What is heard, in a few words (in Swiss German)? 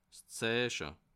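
"zeiche", Bas Rhin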